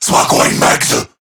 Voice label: Reload, Male